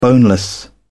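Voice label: "boneless", British English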